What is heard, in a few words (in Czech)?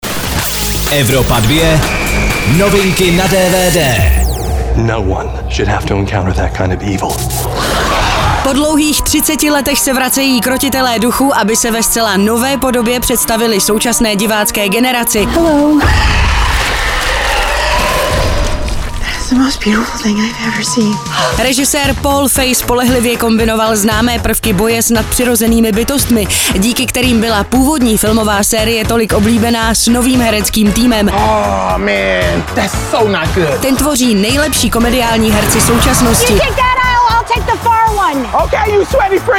dvd news; krotitele duchu